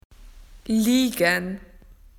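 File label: "lie down", Australian English; PictureDictionary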